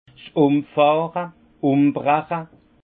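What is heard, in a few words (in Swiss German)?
"umfahre", alsace Haut-Rhin; vin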